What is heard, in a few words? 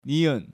"Nieun", Korean